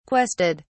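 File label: "quested", British English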